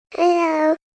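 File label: "Hello" Child female, Greetings, child, girl; VoiceBox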